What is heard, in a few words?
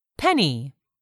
"penny", english; 3000 words